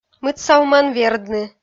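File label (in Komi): "мытсавмӧн вердны", Komi, female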